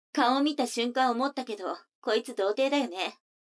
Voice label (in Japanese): 女の子台詞, ASMR